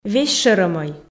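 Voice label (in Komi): "весьшӧрӧ мӧй", female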